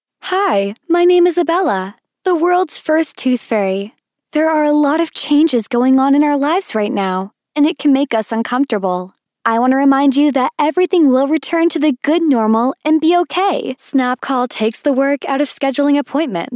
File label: female US; lektoring